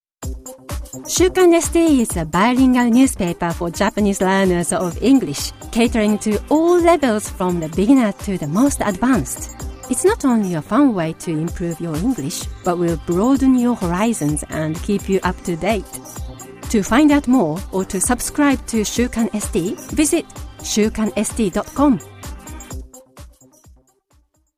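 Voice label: Japanese accented English; GoLocalise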